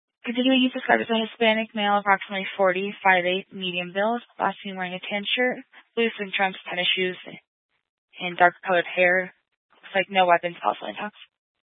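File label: Police Dispatch, Scanner audio recording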